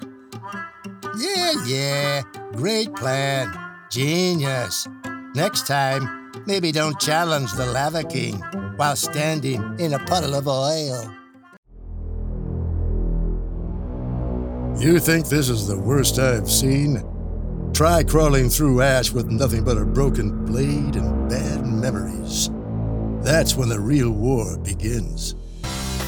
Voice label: Cartoon. Video Game